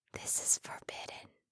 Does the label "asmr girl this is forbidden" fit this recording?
Yes